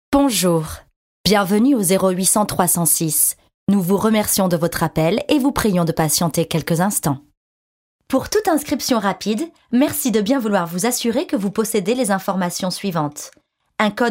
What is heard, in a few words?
French